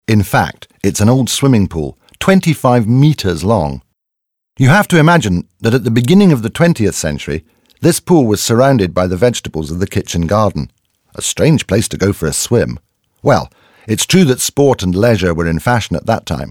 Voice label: audioguide en enfant; modave